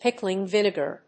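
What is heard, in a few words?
"pickling vinegar", English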